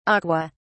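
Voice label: "aquae", British English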